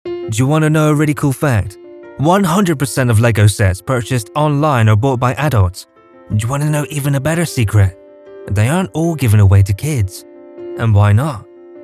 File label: english british commercial